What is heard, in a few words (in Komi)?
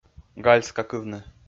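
"гальскакывны", Komi, male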